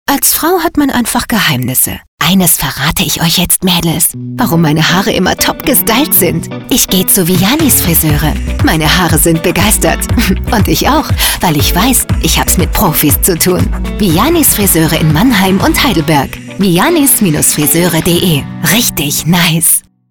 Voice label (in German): Funkspot; VIANI´S; vianis FRISEURE